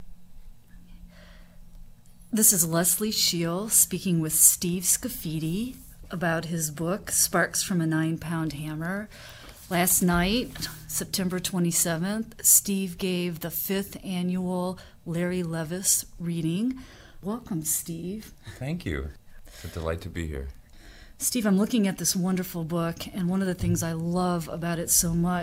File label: interview